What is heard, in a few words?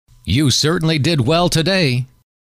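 you Certainly Did Well Today, male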